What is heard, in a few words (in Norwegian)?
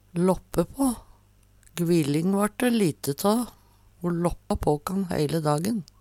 lappe pa, Numedalsmål